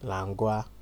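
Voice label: "langua", Nigerian Pidgin, male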